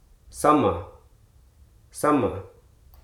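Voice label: "kind", spoken word; hruso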